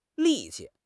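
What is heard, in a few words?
"li4 qi5", Chinese; HSK4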